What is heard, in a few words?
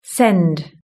"send", British English